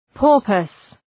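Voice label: "porpoise", English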